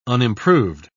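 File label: "unimproved", British English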